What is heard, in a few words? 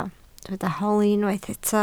"holi", Welsh